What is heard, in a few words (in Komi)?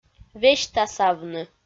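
"весьтасавны", Komi, female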